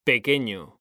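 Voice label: "pequeño", Spanish, male; mekanta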